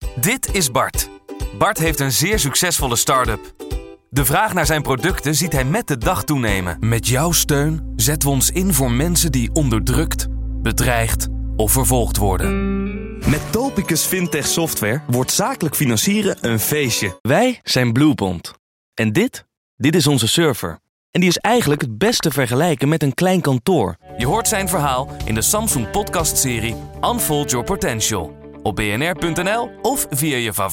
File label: NL Corporate